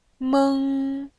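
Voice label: "meng", Mandarin Chinese, first tone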